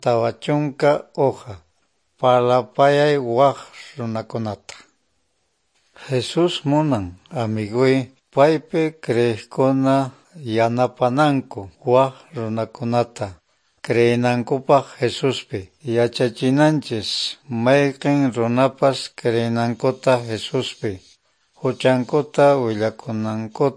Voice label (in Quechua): good news quechua norte de bolivia apolo